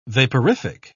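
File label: "vaporific", British English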